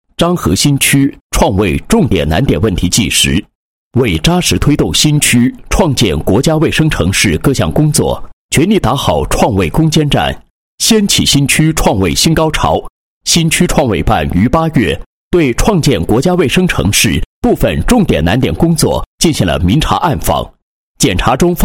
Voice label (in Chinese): 男 解说; 某区创卫重点难点问题纪实